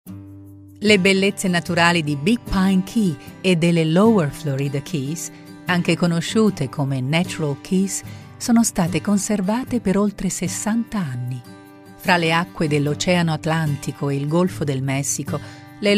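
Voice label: Italian, female, narration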